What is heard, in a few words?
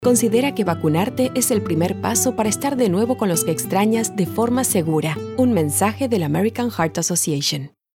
Caring Advice; American Heart Association; Covid